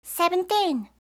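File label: "seventeen", English; eng suuji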